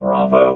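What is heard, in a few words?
"bravo", robot voice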